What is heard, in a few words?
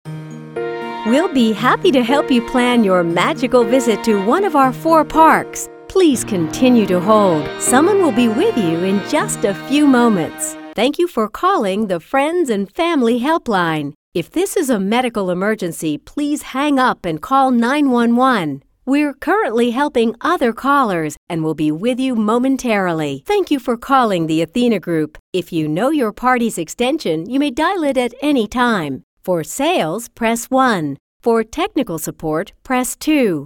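telephone, female voice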